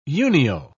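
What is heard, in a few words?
"unio", British English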